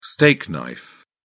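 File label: "steak knife", British English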